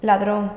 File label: "ladron", andalusien sevilla